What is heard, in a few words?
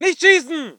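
voice german infantry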